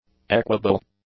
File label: "equable", English